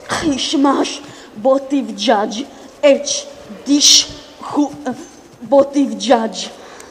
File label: christmas klingon